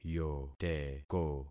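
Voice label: "yoteko", concatenated syllables; Affixing experiment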